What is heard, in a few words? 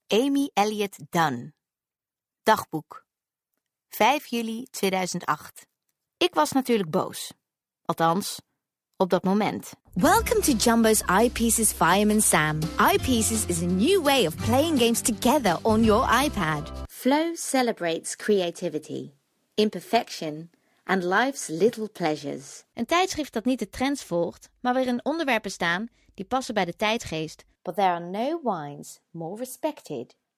Voice demo UK NL